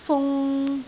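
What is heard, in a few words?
"fung3", Cantonese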